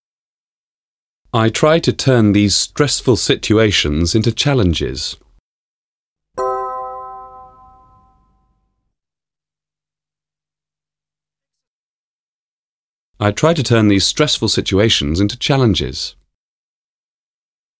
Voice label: Business English Audio Exercise